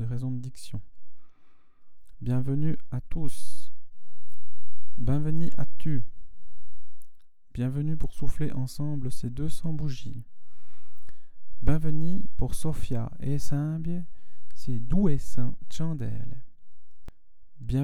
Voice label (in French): Discours patois; patois neuchatelois; Istouérè Histoires